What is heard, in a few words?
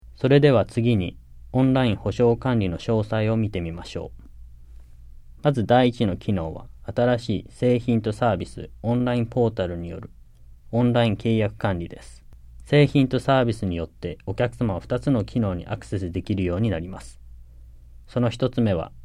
JAPANESE; delltranslations